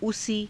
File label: "usii", hindi; उसी